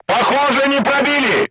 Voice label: voice message armor not pierce, crew tanks russian